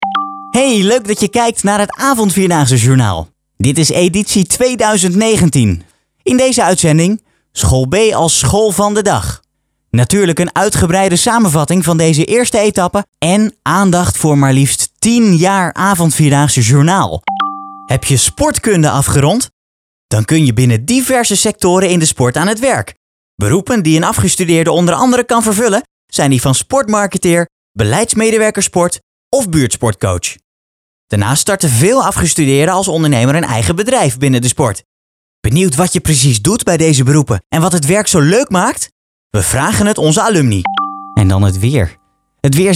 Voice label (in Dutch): informatief